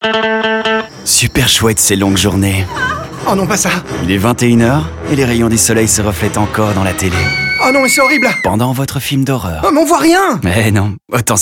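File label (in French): Horror, French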